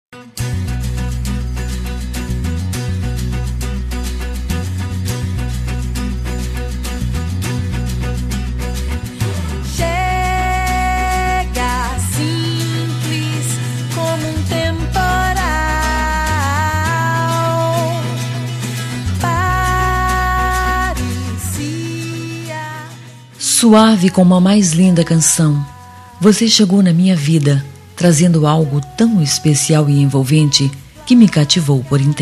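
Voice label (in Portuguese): Namorados pra Namoro Recente, female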